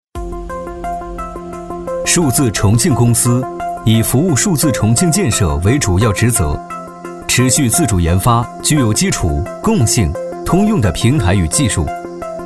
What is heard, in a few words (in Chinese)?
男 专题 科技感 年轻科技; 男D18-【专题】-科技感-年轻科技; 科技感配音类型